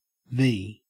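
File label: "vee", Australian English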